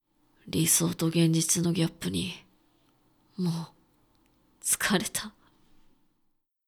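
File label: male; 理想と現実のギャップに、もう疲れた。; 低音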